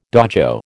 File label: "dojo", American